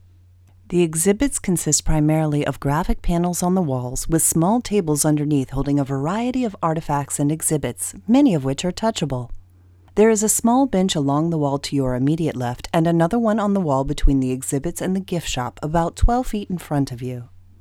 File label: female